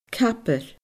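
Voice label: "cabar", Scottish Gaelic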